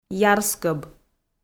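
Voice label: "ярскӧб", Komi-Zyrian, female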